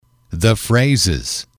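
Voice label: "phrases", English, male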